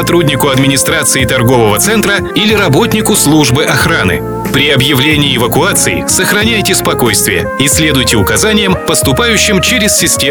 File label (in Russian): Ролик о пожарной безопасности без лифта и эскалатора мужской